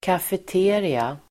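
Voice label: "kafeteria", Swedish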